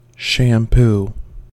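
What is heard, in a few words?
"shampoo", US English; pronunciations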